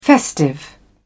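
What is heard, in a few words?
"festive", British English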